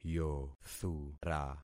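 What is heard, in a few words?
"yothura", concatenated syllables; Affixing experiment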